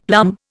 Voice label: "plum", Indian; Dictionary